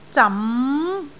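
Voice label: "zam2", Cantonese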